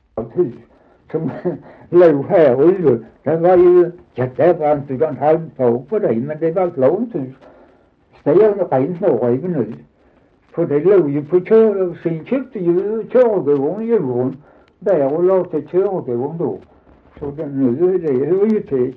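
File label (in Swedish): Dalby Skåne, dialekter; Folkminnen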